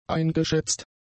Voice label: "eingeschätzt", German; adjectives